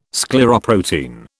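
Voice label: "scleroprotein", British English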